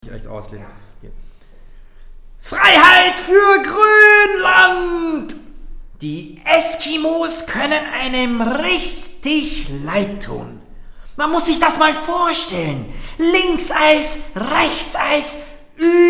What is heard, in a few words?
commercial